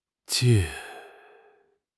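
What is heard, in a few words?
"jie4", Mandarin Chinese; HSK3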